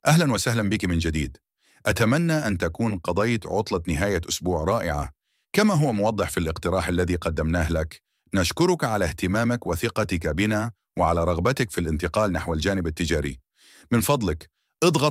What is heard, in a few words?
welcome arabic